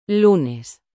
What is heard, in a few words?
"lunes", spanish